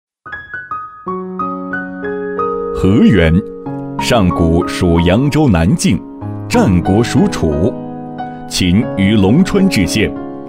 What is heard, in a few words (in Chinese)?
男 茶坊（感情）